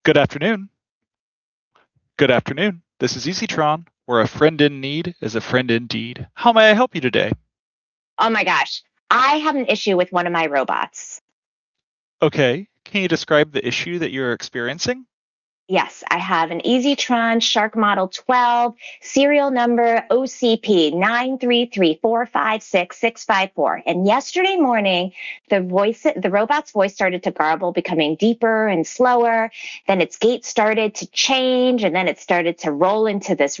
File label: Call EN; Customer Conversations; Transcribe Translate Calls